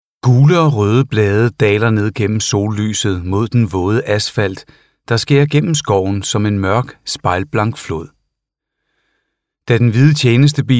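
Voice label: Danish Audiobook Male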